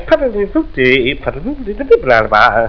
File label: gibberish